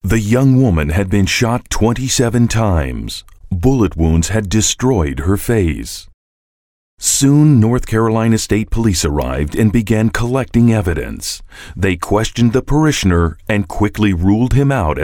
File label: US English True Crime TV Show